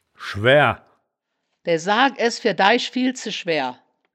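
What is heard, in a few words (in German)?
"schwaeaer", wittlicher woerterbuch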